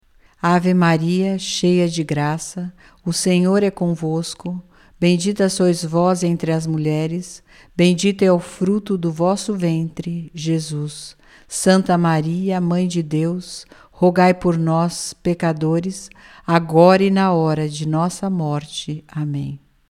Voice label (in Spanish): ave maria portugues normal; ave maria idiomas